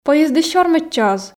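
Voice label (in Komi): поездыс сёрмӧдчӧ оз, Komi, female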